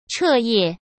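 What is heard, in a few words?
"彻夜", Mandarin Chinese; chinese flashcards; hsk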